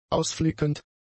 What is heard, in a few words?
"ausflickend", German; adjectives